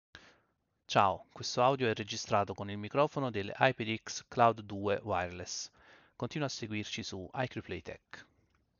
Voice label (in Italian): Audio con microfono HyperX Cloud II Wireless con filtro antipop